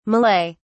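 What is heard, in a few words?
"malay", British English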